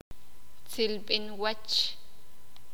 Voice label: sakapulteko; Envidia